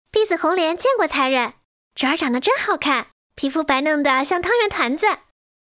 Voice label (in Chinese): 活泼少女音古风